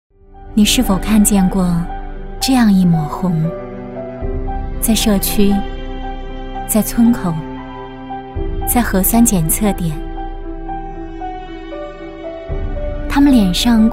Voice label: 女 旁白 志愿者; 你是否看见过这样一抹红

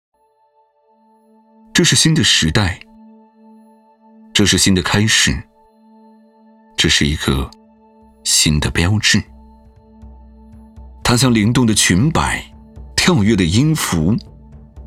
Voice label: 男 先导片; 演绎经典

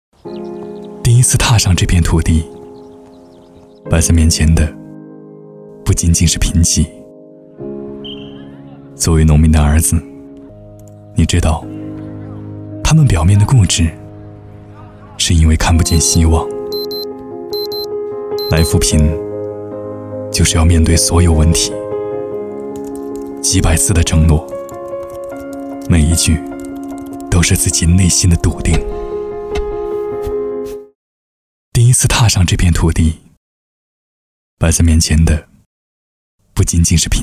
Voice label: 男 走心; 青山

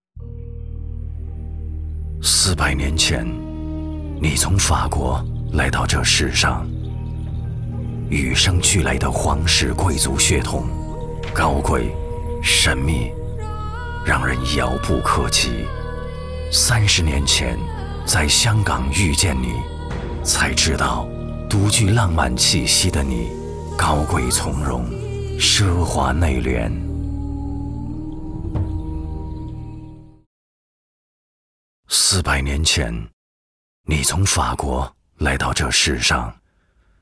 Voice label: 男, 品质广告, 珠宝广告 神秘风格

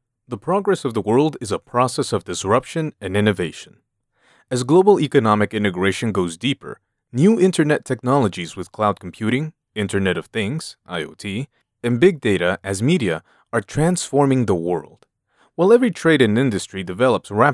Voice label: 外籍英男 新互联网技术 讲述

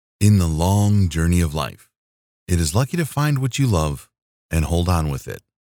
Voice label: 英文配音; 漫长的岁月

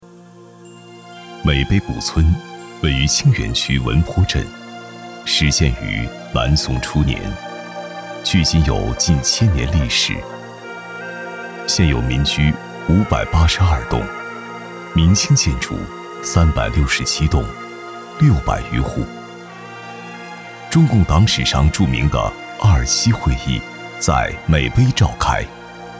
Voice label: 男,纪录片; 渼陂古村

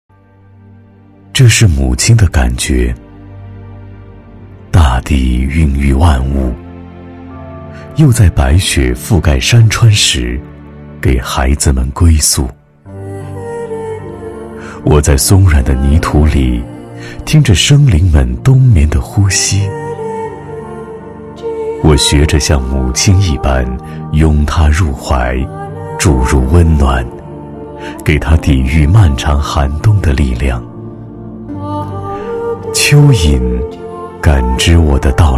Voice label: 男 宣传片走心; 河南台节气宣传片

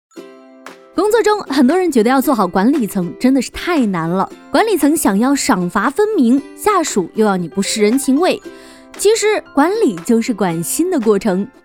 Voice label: 女,专题介绍,轻松,自然

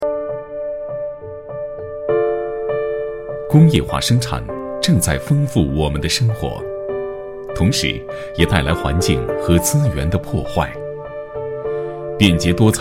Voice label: 男 现代科技感; 英飞腾

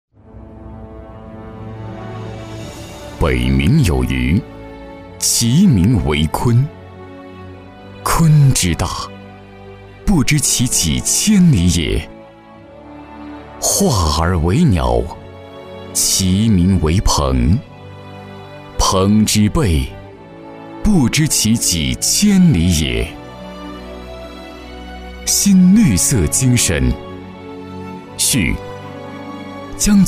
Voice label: 男, 深沉高贵 专题; 现代中药企业专题

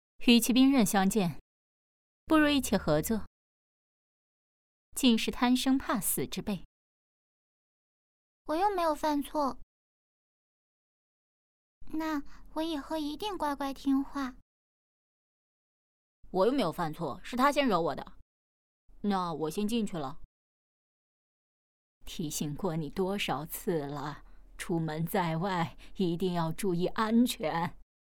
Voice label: 女 角色 女侠 女童 男童 奶奶

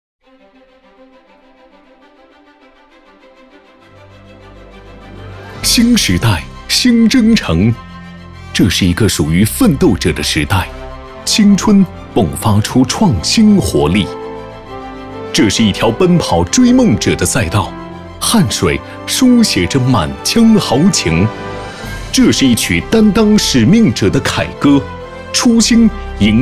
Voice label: 男 党政专题 激越亢进 党建; 两江片区党建

